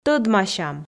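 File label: "тӧдмасям" Komi, female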